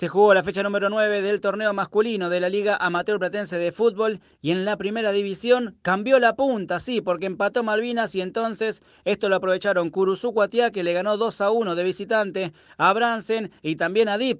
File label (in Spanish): Reporte deportivo